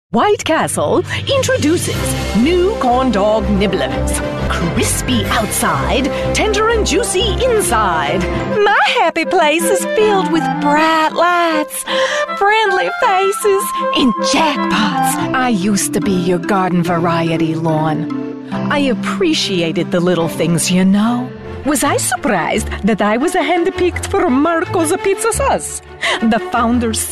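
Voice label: American English characters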